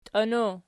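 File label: "tiono" nominative, Archi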